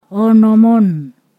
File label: "six already", Ponosakan